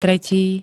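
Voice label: "tretí", Slovak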